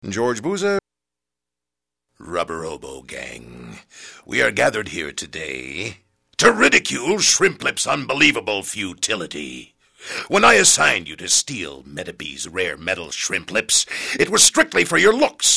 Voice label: animation male